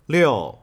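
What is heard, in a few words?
"Liu", six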